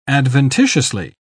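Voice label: "adventitiously", British English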